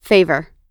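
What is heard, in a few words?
"favor", English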